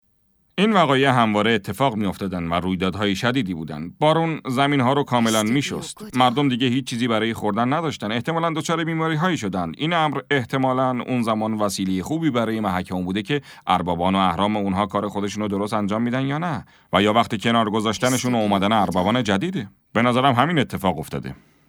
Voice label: dub